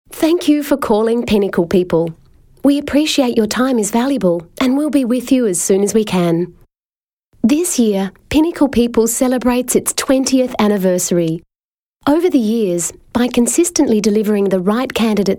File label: corporate; EM Voices